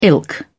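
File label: "ilk", English, British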